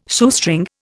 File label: "Shoestring", Indian